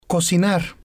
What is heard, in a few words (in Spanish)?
"cocinar", Spanish